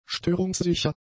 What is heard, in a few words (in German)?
"störungssicher", German; adjectives